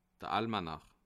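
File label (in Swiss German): "almanach", Alsatian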